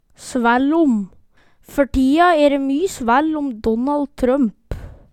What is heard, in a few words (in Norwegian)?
svæll om, Numedalsmål